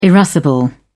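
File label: "irascible", English, British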